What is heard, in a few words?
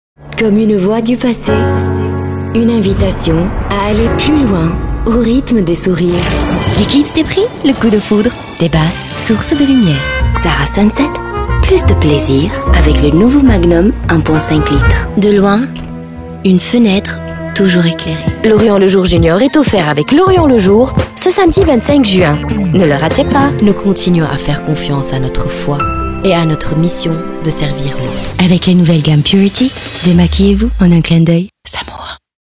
French VO